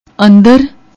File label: "anddar", Punjabi